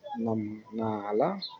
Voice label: "i miss you", wolof